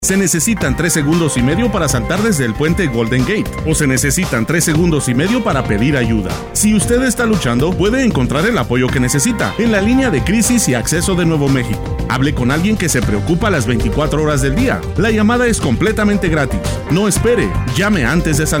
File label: Radio Spanish